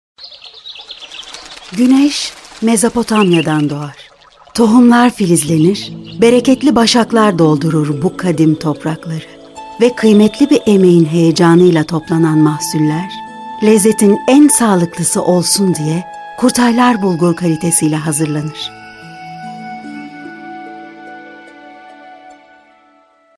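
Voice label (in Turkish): reklam, Turkish; kurtarlar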